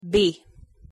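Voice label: "b" pronunciation English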